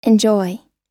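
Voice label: "enjoy", American English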